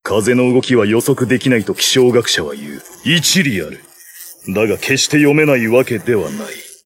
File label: Weather Forecast Voice